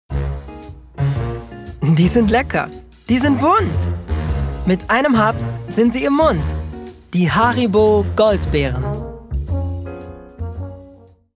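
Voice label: German, Commercial; GoLocalise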